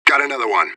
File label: radio bot kill count; fbihrt